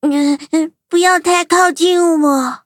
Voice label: touch, zh-hant